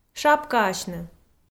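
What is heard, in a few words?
"шапкаасьны", Komi, female; kpv audio dict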